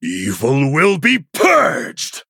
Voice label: Male Human Attack; Arthas